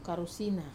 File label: "karusina", backbone, Wamesa